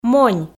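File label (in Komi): "монь", Komi, female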